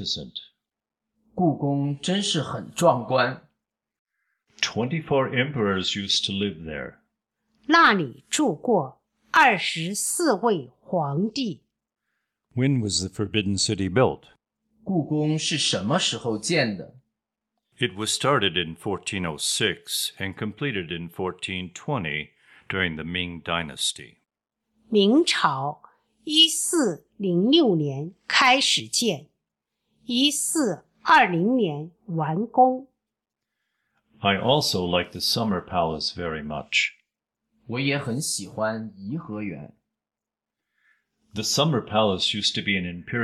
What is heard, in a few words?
Dialog